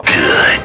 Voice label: "good", expletives